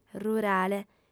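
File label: "rurale", female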